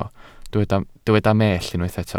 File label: "mellt", welsh